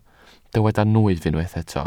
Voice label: "nwyf", welsh

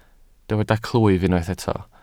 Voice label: "clwyf", Welsh

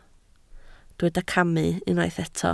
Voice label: "camu", Welsh

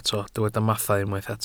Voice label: "mathau", Welsh

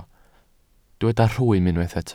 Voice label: "rhwym", Welsh

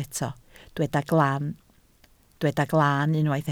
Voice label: "glan", Welsh

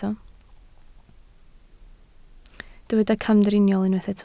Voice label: "camdriniol", Welsh

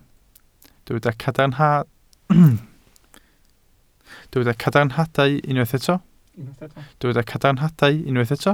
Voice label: "cadarnhadau", Welsh

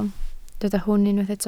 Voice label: "hwn", Welsh

